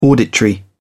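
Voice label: "auditory", British English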